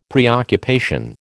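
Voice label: "preoccupation", American English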